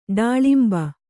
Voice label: "ಡಾಳಿಂಬ", Kannada

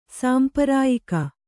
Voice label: "ಸಾಂಪರಾಯಿಕ", Kannada

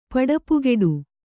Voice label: "ಪಡಪುಗೆಡು", kannada

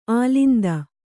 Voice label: "ಅಲಿಂದ", Kannada

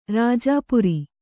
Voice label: "ರಾಜಾಪುರಿ", Kannada

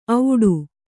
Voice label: "ಔಡು", Kannada

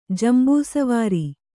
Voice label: "ಜಂಬೂಸವಾರಿ", Kannada

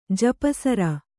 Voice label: "ಜಪಸರ", Kannada; japa sara; noun; = ಜಪಮಾಲೆ

